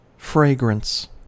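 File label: "fragrance", American English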